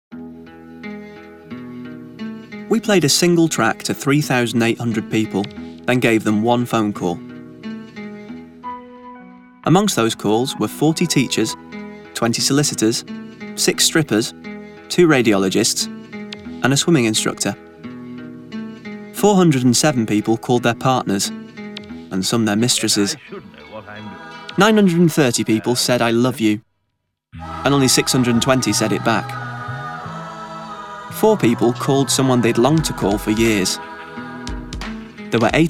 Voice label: conversational

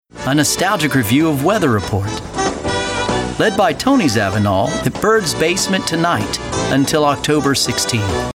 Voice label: Weather Report

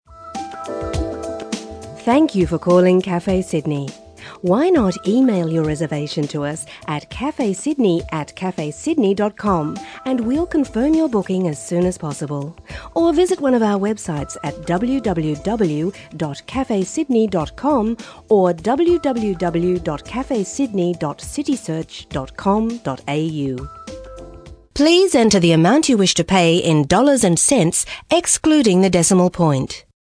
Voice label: ivr

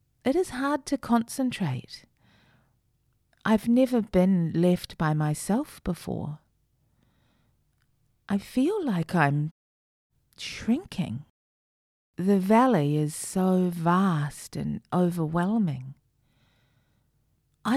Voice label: Audiobook

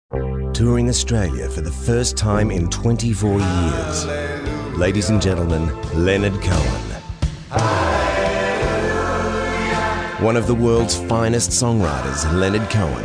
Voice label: soft; EM Voices

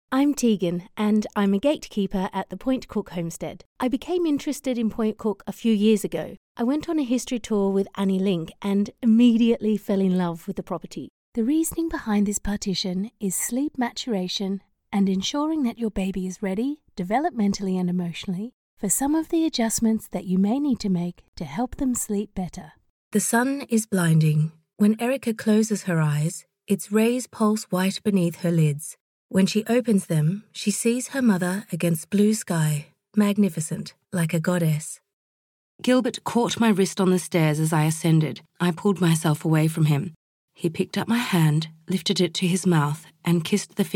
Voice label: accent demo, voices

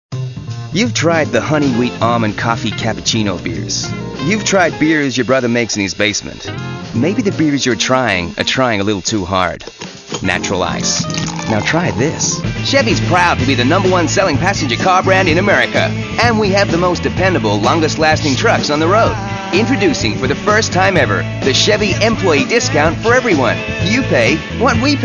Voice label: american, voices

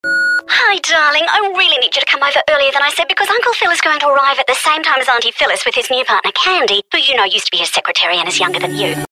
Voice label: Character

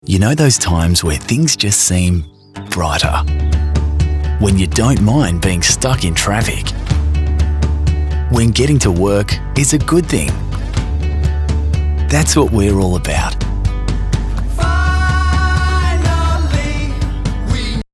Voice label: Good Day Corporate

